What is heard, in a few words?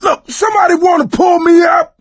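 ledge hang middle, voice, male; Left 4 Dead 2; coach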